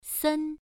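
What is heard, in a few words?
"sen", Mandarin Chinese syllable, first tone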